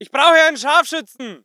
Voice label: voice ger infantry